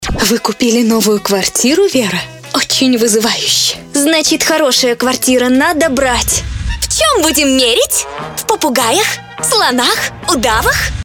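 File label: Parodii Rus, Russian parodies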